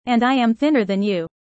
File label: user fast; how tall